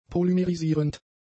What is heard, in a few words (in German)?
"polymerisierend", German; adjectives